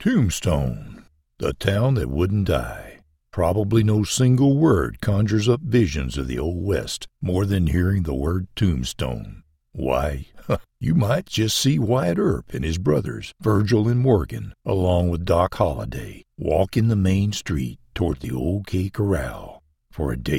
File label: character voice cowboy